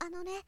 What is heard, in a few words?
shonen anone